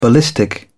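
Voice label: "Ballistic", British English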